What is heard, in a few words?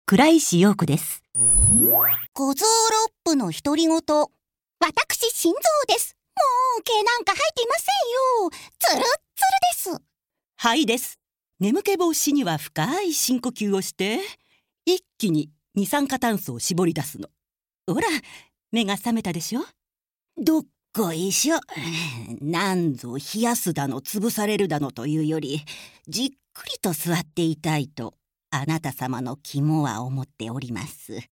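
female talk to inner self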